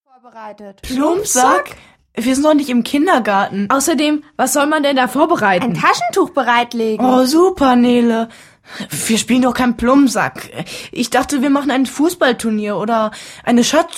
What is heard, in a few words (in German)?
Hoerspiel